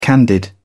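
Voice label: "candied", British English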